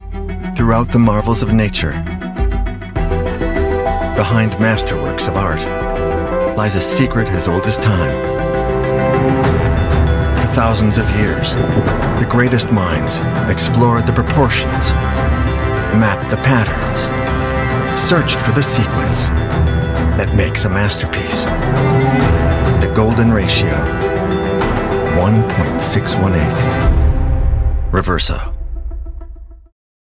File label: US English; Jaeger Le Coultre Golden Ratio 30